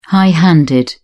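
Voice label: "high-handed", English, British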